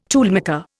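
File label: "tooth", Indian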